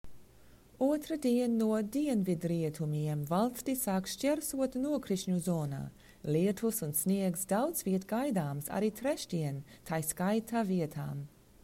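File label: LV Narrative, Latvian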